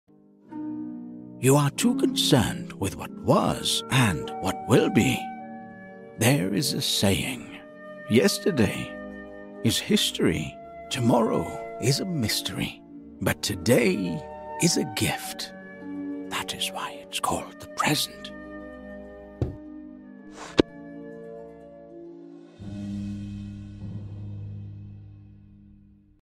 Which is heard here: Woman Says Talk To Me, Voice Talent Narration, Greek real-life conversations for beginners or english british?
english british